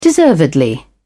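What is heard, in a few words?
"deservedly", British English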